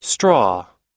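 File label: "straw", US English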